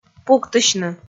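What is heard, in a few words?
"пуктысьны", Komi, female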